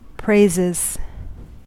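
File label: "praises", US English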